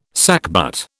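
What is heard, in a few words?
"sackbut", British English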